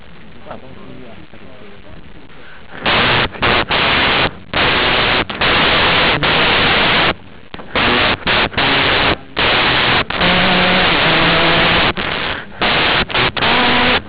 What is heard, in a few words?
walkitalki